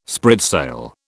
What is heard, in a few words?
"spritsail", British